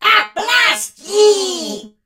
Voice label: hurt; Darryl